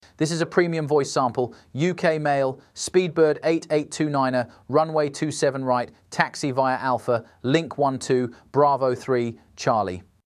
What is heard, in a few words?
UK